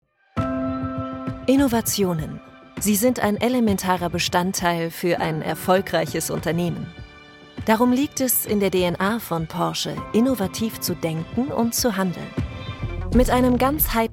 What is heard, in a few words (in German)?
Imagefilm newsroom